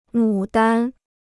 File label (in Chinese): "牡丹", Chinese